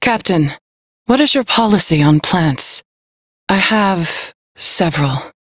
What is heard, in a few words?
Crew Hired, Female; Dialog; en; Warframe; Railjack Crew; New Loka